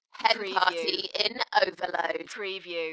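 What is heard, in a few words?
British female Hen party in overload; Dalekness; beanstalk audio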